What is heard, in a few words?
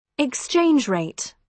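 "exchange rate", British English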